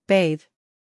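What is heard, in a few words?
"scandal", American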